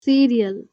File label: "cereal", English